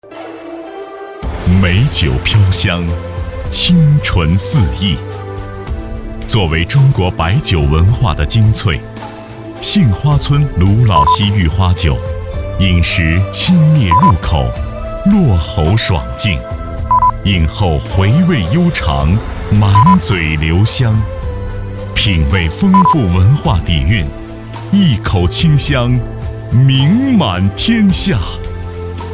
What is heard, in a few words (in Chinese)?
男 广告; CCTV央视广告《杏花村泸老西郁花酒》